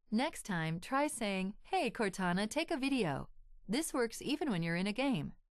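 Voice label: take a video, English, US; Windows; HoloShell; teaching moments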